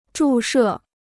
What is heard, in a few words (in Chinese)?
"注射", Chinese